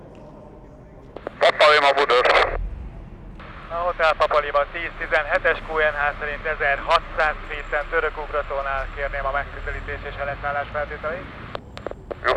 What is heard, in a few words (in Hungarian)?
leszallohely kijelolese repter, adovevo; budaorsi repter